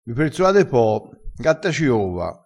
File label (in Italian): "gatta ciova", dialettale crespinese